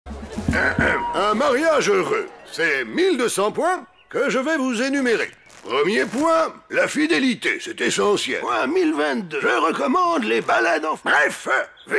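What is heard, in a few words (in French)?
Fraiche clin d oeil, comédienne voix off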